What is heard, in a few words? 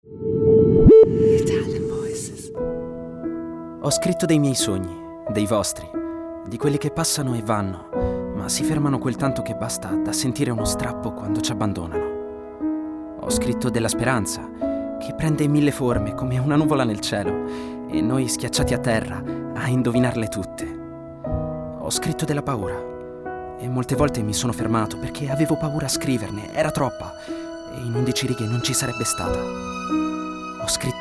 ITALIAN VOICES, male voices; Naked Reel